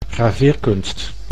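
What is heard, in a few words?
"graveerkunst", Dutch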